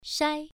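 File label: "shai", Mandarin Chinese syllable, first tone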